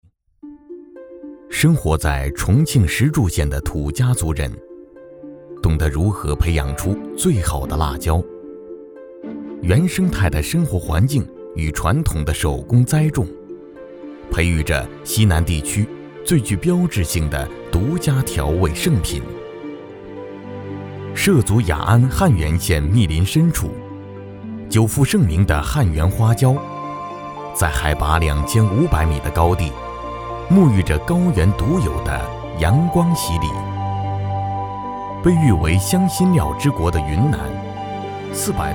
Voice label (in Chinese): 男 纪录片 火锅串串